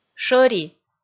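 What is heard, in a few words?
"шӧри", Komi, female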